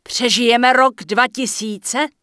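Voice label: Human Female Official NPC Greeting, Czech; wowpreklad; czwow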